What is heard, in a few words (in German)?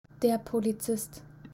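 "polizist", German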